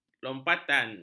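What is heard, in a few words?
"lompatan", Malay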